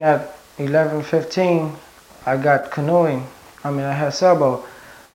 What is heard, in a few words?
Conversation with 17 year old male New York New York, american english dialect